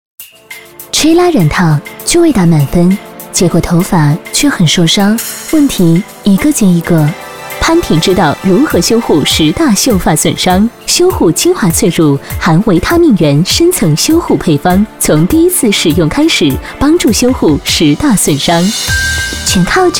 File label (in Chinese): 女 广告 洗化类; 潘婷